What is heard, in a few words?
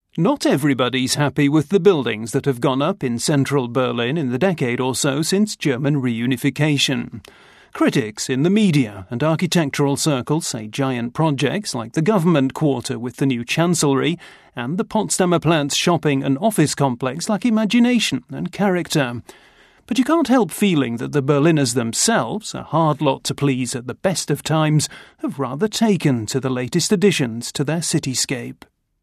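documentary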